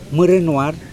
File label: "canoe back", Mokilese